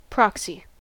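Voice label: "proxy", US English; pronunciations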